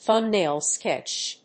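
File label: "thumb-nail sketch", English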